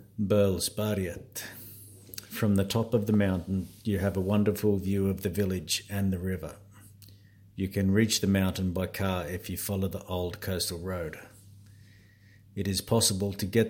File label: English voice; Bolsberget